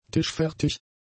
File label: "tischfertig", German; adjectives